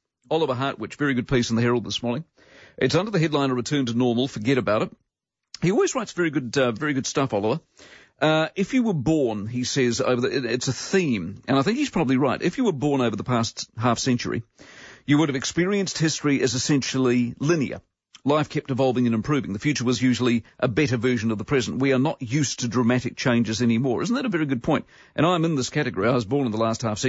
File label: Promo